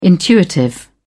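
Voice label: "intuitive", English, uk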